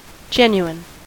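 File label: "genuine", American English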